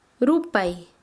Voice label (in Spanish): "quemar", Quechua Chanka